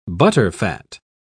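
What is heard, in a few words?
"butterfat", English, British English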